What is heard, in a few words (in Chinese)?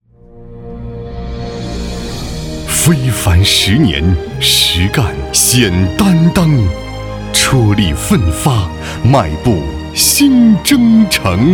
男 宣传片 激情力度; 非凡十年